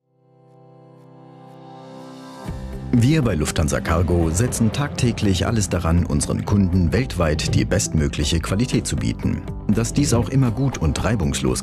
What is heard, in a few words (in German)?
tutorials; Lufthansa Cargo Buchungsqualität (DE)